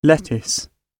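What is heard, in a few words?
"lettuce", British English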